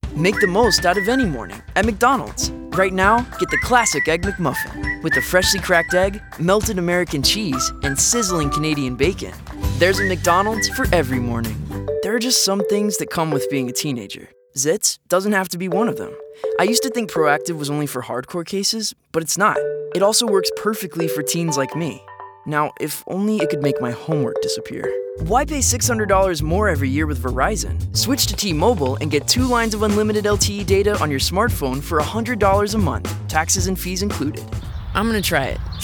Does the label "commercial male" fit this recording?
Yes